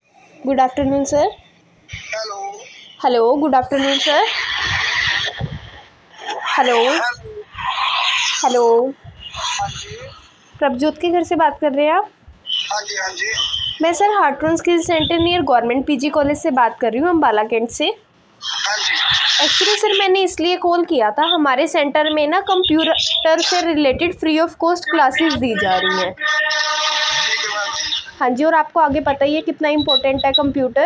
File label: call recording